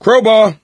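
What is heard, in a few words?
melee weapons, voice, male; Left 4 Dead 2; coach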